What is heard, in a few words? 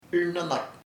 his sister su hermana, chamacoco